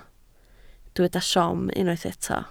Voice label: "siom", Welsh